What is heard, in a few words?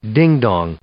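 "dong", English; noun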